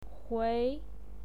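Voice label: "hui", Mandarin Chinese pinyin, second tone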